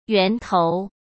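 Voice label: "源头", Mandarin Chinese; chinese flashcards; hsk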